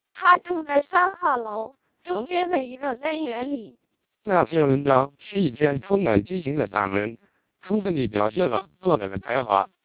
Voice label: twelp 300, speech samples, vocoders, lowrate; Chinese